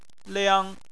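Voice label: "liang", Chinese, first tone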